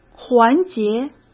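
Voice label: "环节", Mandarin Chinese; hsk; chinese-flashcards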